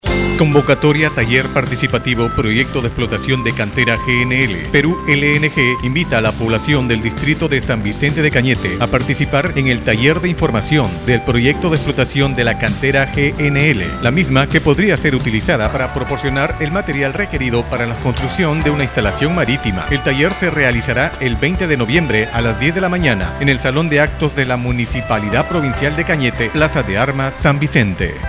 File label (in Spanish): Anuncio por radio; Peru LNG; Canete